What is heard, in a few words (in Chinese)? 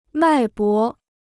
"脉搏", Chinese